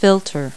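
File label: "filter", English word; Multi-Lingual Talking Picture Dictionary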